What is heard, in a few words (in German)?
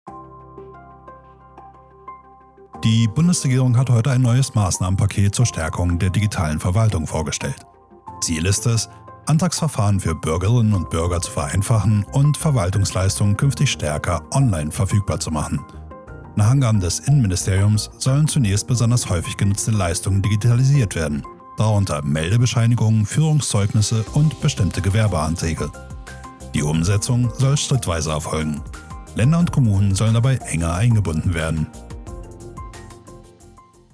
Nachrichten; Sprecherprofil